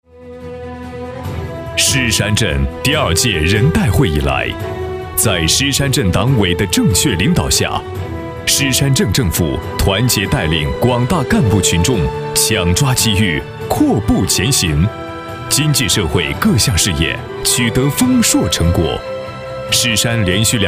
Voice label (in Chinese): 男国 专题 政府 镇政府专题 浑厚